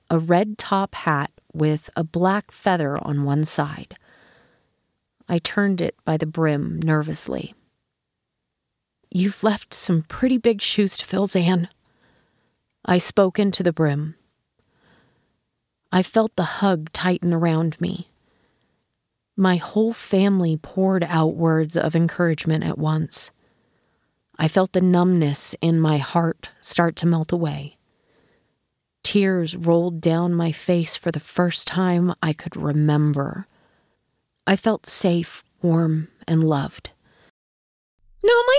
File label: Audiobook